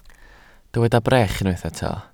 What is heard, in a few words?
"brech", welsh